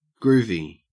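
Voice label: "groovy", Australian English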